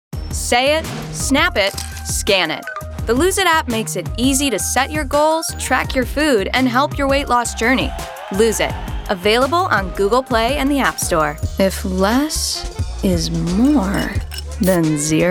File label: commercial